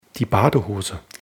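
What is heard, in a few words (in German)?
"Badehose", German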